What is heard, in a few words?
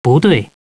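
"no", male; TALKNOW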